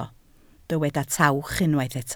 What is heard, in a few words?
"tawch", Welsh